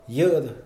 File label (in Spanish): "eso", Mixe, hombre; INALI